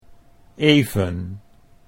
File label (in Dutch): "even", Dutch